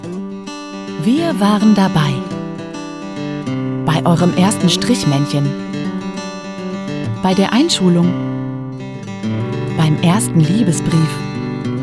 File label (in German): Image-Film, Commercial (Werbung), German; Papier König